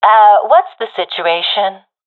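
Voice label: request report, professional female; vo; Counter Strike Model Pack; CS:GO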